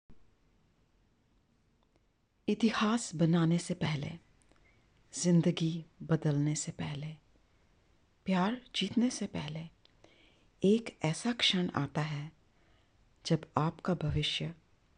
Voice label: Hindi Commercial sexy

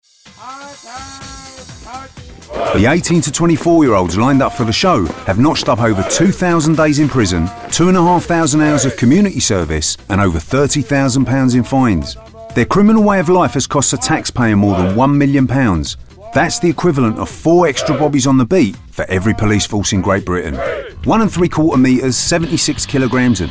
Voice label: Documentary

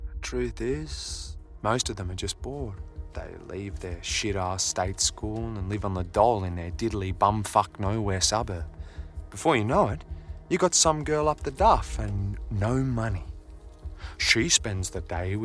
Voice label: Audiobook

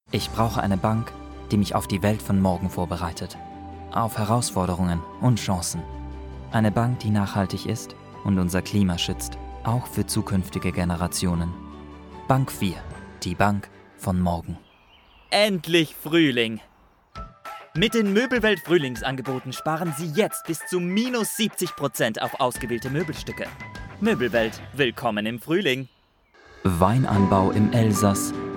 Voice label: GERMAN Voice

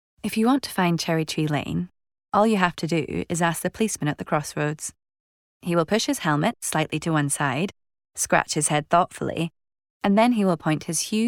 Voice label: Audiobook

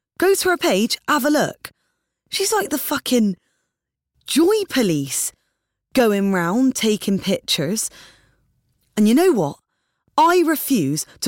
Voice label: Bristolian

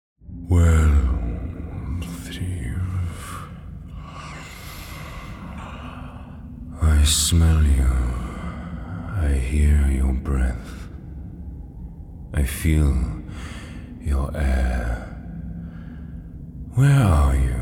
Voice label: Animation

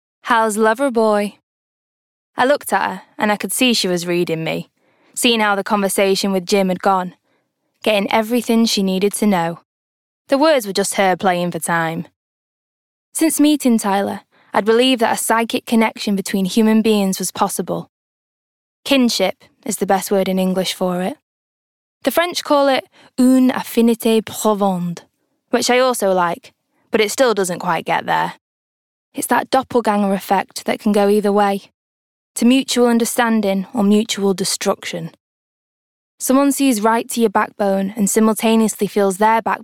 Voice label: Audiobook

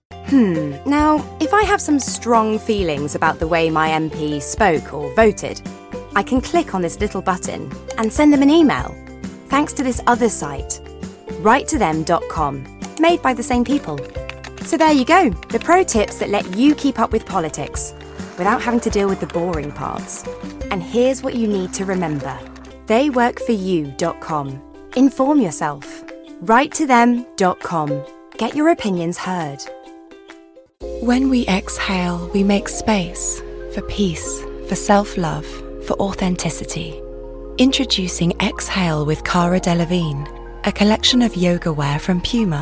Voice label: commercial reel; Tesco; Puma; Spotify; CBeebies

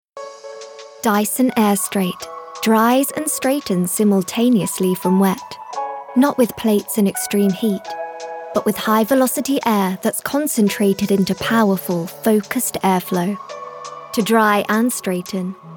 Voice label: commercials

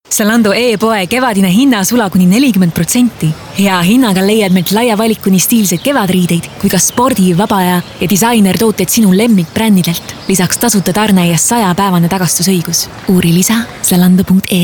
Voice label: Estonian

